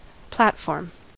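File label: "platform", American English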